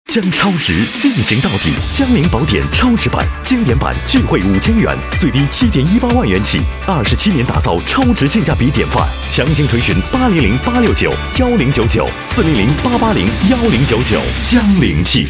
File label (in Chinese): 男 汽车广告, 国语男声配音; 大师级录音; 江铃汽车